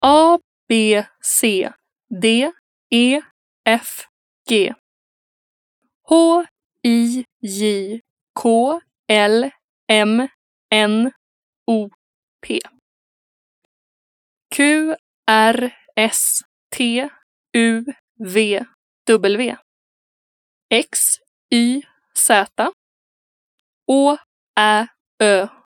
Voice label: Swedish alphabet; Swedish language